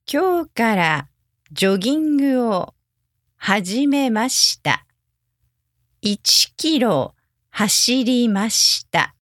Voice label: sentence slow, japanese